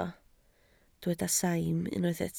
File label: "saim", Welsh